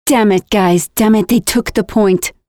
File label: Enemies have reset captured progress, Voiceovers; Project Argo